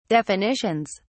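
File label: "definitions", British English, dictionary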